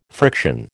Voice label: "friction", American

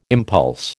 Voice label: "impulse", American

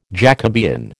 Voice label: "Jacobean", American

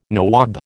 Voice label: "nawab", American

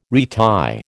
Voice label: "retie", American

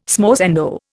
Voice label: "smorzando", Indian

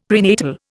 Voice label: "prenatal", Indian; Dictionary

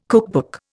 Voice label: "cookbook", Indian

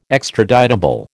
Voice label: "extraditable", American

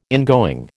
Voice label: "ingoing", American

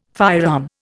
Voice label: "firearm", Indian

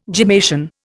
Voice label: "gemmation", Indian

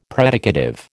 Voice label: "predicative", American

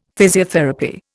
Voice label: "physiotherapy", Indian; Dictionary

